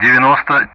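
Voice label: Speech Sound Russian; DCS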